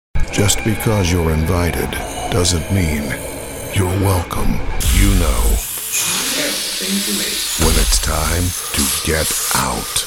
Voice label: english american movie trailers